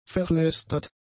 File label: "verlästert", German; adjectives